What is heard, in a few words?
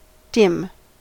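"dim", US English; pronunciations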